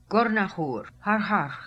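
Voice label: "Gornahoor Harharkh", pronunciations; Fourth Way; Beelzebub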